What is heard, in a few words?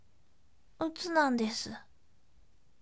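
voice, bright; utunadesu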